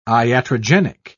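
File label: "iatrogenic", British English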